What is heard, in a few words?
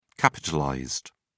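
"capitalized", English, British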